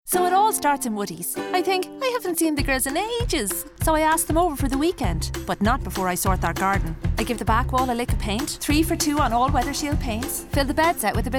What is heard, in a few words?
Radio; Woodies